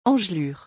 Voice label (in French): "engelures" French; vocabulary; doctors office